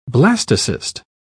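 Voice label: "blastocyst", British English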